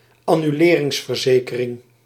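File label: "annuleringsverzekering", Dutch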